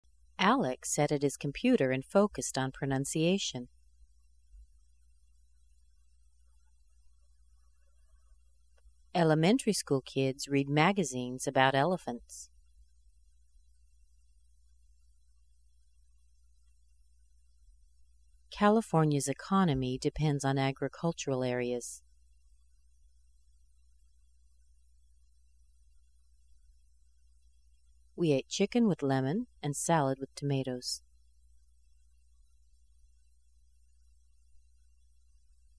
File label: schwa sentences, American accent